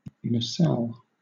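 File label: "nacelle", English, British accent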